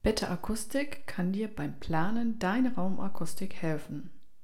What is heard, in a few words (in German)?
ohne Bearbeitung; betterAcoustic